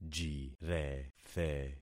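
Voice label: "jirethe", concatenated syllables; Affixing experiment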